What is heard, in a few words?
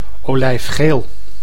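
"olijfgeel", Dutch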